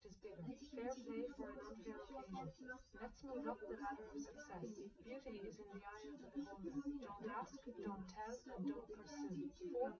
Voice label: female, live rec; SiSEC08; SiSEC underdetermined